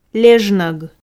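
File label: "лежног", Komi, female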